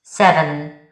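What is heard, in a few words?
"Seven", spoken number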